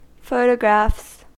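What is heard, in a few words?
"photographs", American English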